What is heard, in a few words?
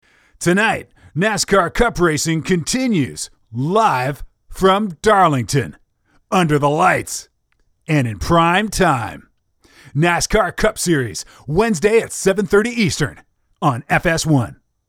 english canadian promos